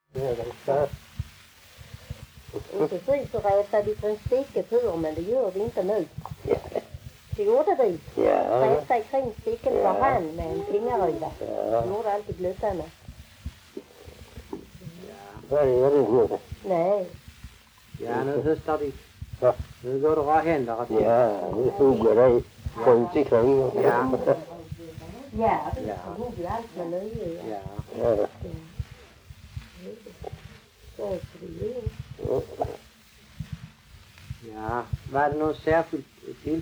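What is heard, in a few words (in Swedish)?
Allerum Skåne, Svenska sagor dialekter; Folkminnen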